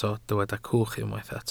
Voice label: "cwch", Welsh